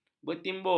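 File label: "bertimbun", Malay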